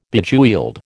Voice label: "Bejeweled", American

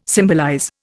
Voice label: "symbolize", Indian

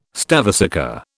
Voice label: "stavesacre", British

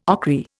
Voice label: "ochry", Indian

